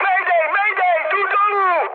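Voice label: aircraft destroyed, Czech, mood high; War Thunder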